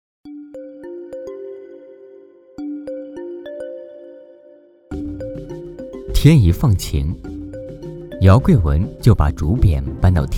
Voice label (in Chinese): 纪录片-舌尖体